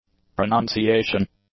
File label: "pronunciation", English; nouns